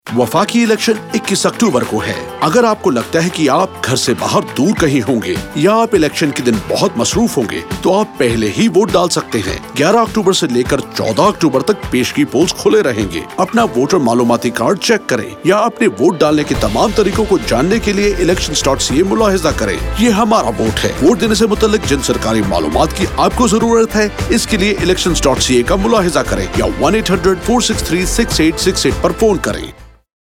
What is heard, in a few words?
early voting option Urdu, radio advert